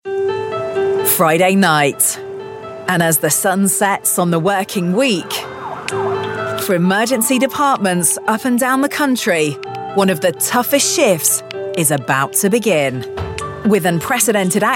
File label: narration